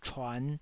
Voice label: "chuan5", taiwanese

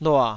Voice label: "lua3", Taiwanese syllable

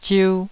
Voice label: "ziu2", taiwanese

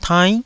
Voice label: "tainn9", taiwanese Syllables

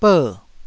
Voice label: "ber4", taiwanese syllables; 台語音節發音檔案

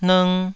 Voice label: "nng2", Taiwanese syllable

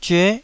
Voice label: "zyue9", taiwanese

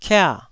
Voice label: "kia4", taiwanese syllable

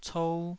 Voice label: "cou2", Taiwanese

